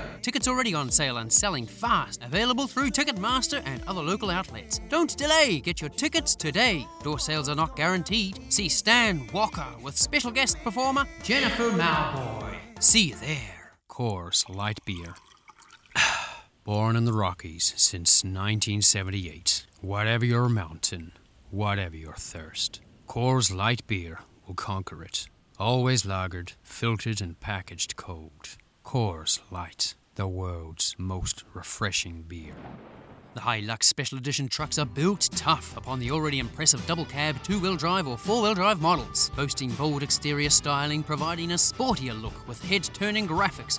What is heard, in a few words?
Commercial Reel